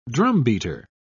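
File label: "drumbeater", British English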